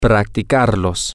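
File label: Spanish, to practice it masculine plural, male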